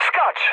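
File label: bailout, mood low, Polish speech; War Thunder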